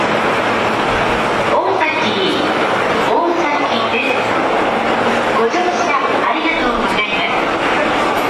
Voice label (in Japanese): ATOS, 駅名連呼（山手線ホーム）, 大崎〜おおさき